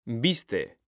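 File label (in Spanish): "VISTE", Spanish, male; mekanta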